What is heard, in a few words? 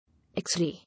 "X-ray", English